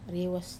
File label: "rewas", cold, Wamesa